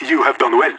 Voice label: nice shot, vo agents; leet

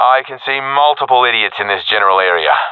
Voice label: sees multiple enemies, professional; vo; Counter Strike Model Pack; CS:GO

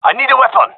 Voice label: request weapon, gendarmerie male; vo

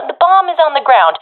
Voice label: sees dropped bomb, professional fem; vo; Counter Strike Model Pack; CS:GO

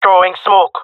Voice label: throwing smoke, jungle male; vo; agents; Source Model Packs; Counter Strike Model Pack; CS2

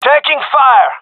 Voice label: takingfire, male; vo

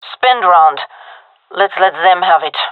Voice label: request spend, gendarmerie fem

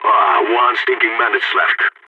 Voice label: one enemy left, balkan; vo

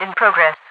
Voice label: in progress, radio voice; Half Life 2

